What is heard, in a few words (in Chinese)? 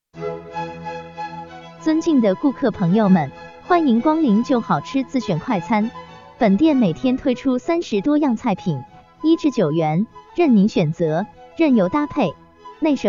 女 广告 饭店促销